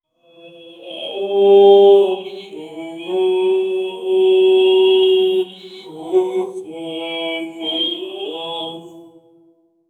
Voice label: breaking fast sound azan, ceremonial

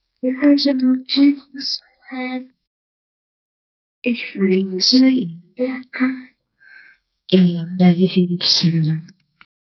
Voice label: an ai robot saying in

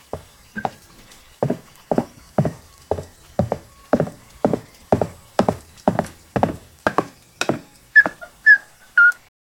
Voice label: friendly mom voice; breakfast

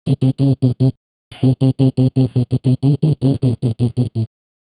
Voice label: short character voice gib, syllables

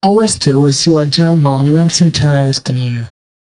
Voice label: female soldier voice; clear

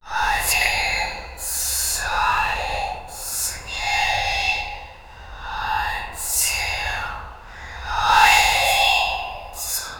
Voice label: a demon whispering an eer, chilling

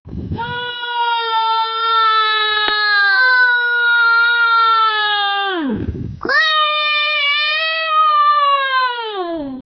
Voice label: low men talking sound whi; human vocal

